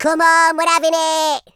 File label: collect, speech, Madchester